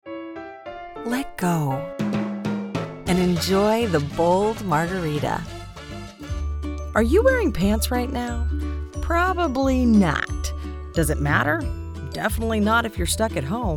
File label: commercial women